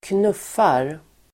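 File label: "knuffar", Swedish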